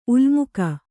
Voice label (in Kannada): "ಉಲ್ಮುಕ", Kannada